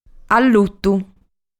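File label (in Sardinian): "Allutu", Sardinian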